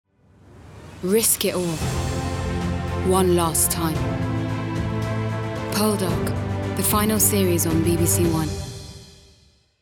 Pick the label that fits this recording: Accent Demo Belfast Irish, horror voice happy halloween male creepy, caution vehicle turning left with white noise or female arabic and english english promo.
female arabic and english english promo